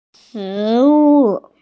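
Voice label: "shi2wu4", Mandarin Chinese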